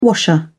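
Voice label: "washer", British English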